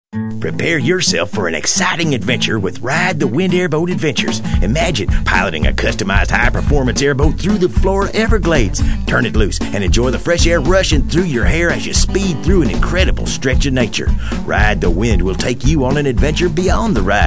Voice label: Spot; Ride The Wind Airboat